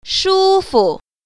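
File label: "shu1fu0", Mandarin Chinese Tone Pair Drills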